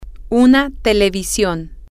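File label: the television, spanish, female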